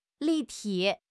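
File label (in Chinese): "立体", Chinese; HSK6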